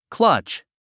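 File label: "clutch", English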